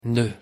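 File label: pronunciation "noeud", French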